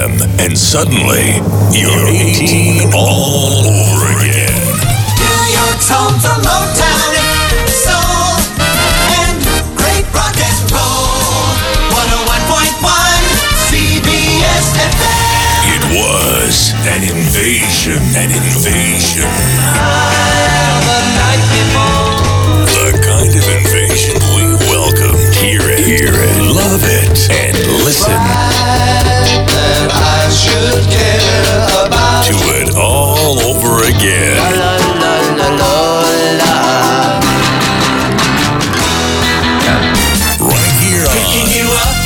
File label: Oldies Imaging